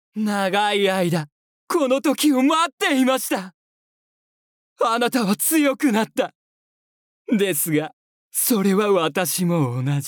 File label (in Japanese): 紳士系ヴィラン